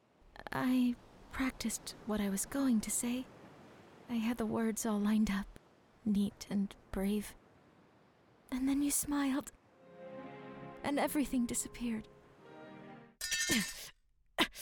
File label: Animation